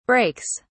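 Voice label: "brakes", British English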